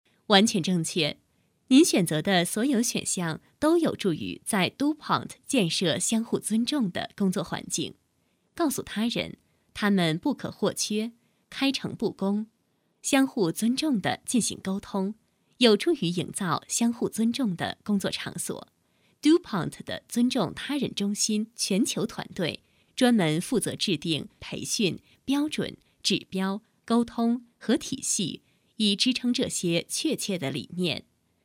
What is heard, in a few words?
chinese voiceover locutora china mandarina